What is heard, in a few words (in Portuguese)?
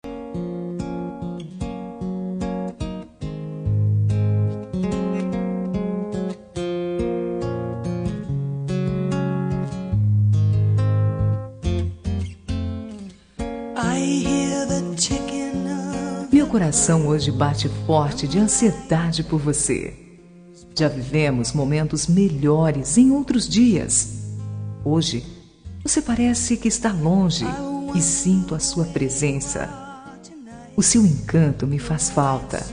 fem; me de atencao